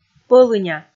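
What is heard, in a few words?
"пӧлыня", Komi, female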